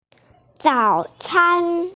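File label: "zao3can1", Mandarin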